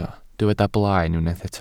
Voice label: "blaen", Welsh

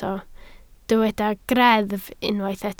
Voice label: "greddf" Welsh

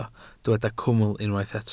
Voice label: "cwmwl" Welsh

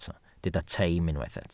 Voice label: "teim" Welsh

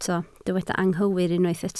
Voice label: "anghywir", Welsh

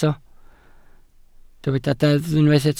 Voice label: "deddf" Welsh

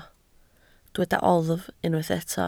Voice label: "oddf" Welsh

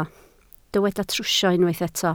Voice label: "trwsio" Welsh

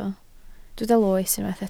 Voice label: "loes", Welsh

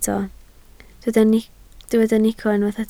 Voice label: "nico", Welsh

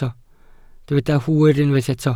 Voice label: "hwyr", Welsh

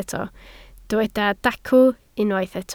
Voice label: "dacw", Welsh

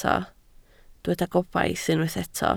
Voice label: "gobaith", Welsh

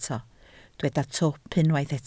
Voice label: "twp", Welsh

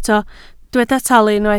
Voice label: "talu" Welsh

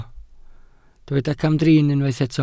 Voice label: "camdrin", Welsh

